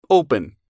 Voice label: "open", English

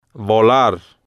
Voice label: "volar", spanish

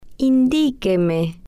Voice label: "indiqueme", spanish

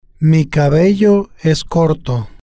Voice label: "mi Cabello Es Corto", spanish

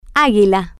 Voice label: "aguila", Spanish

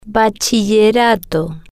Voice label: "bachillerato", spanish

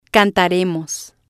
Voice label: "cantaremos", spanish

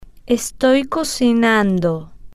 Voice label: "estoy Cocinando", spanish

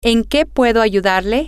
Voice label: how can I help you, spanish, F

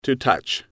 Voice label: "to touch", English

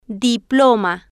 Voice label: "diploma", Spanish

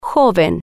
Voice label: "joven", spanish

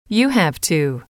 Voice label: you have to, English

